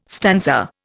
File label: "stanza", Indian